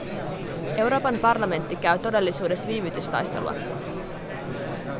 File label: babble, speech noisy synthesis